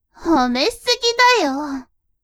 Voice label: woman